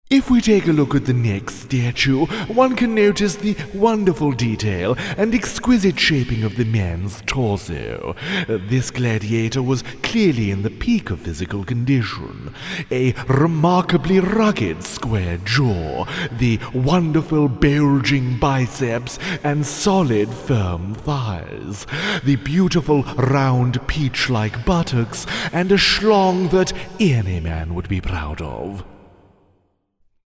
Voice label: posh historian